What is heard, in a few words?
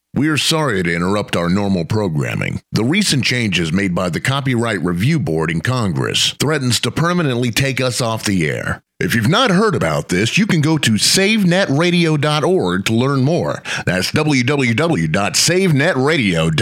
PSA DRY; savenetradio